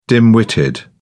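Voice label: "dim witted", British English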